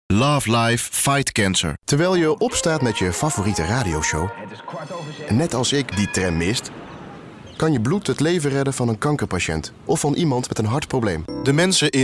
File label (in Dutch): WARM